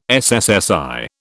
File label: "SSSI", British